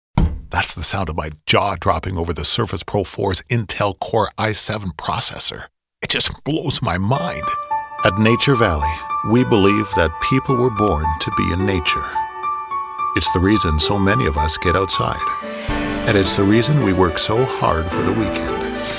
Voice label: Commercial